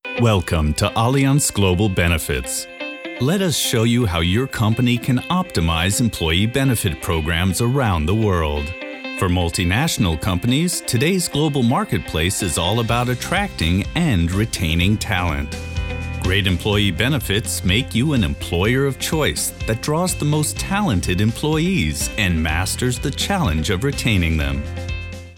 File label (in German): Imagefilm; Allianz